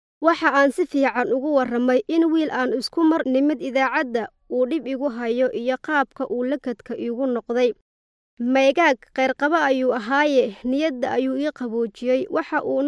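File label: Somali, voice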